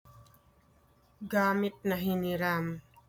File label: Tagalog